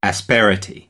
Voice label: "asperity", American English